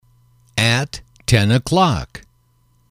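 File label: "ten o'clock", English, male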